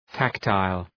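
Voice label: "tactile", English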